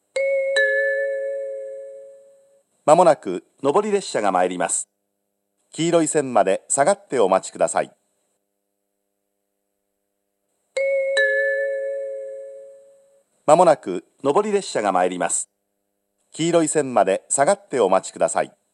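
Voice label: platform 3 approaching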